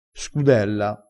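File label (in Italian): "scudella", vocabolario dialettale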